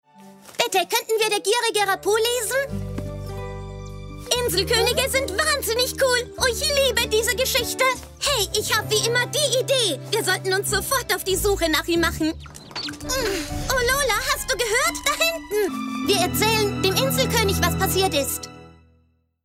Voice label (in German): Synchron Junge